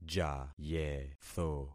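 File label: "jayetho", concatenated syllables; Affixing experiment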